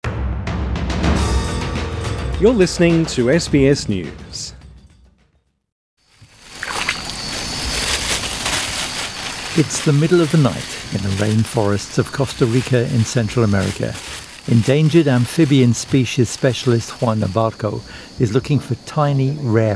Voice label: english; Scientists find potential way to save frogs from deadly fungus; SBS News

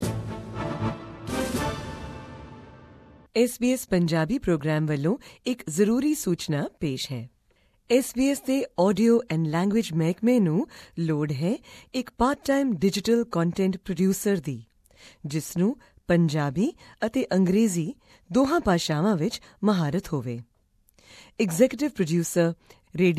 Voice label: punjabi; SBS Punjabi needs a part-time Digital Content Producer

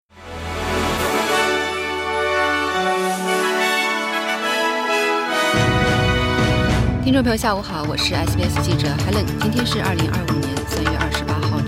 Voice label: mandarin newsflash; 何为悬峙议会？若大选中选出少数派政府会如何？; SBS Chinese; SBS新闻快报

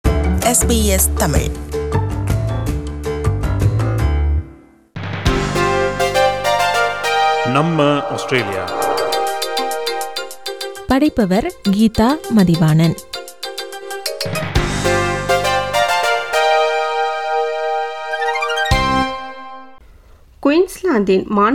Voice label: tamil; SBS Tamil; கோவாலா ஏன் அழிந்துவரும் உயிரினமாகிறது?

